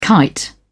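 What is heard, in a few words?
"kite", British English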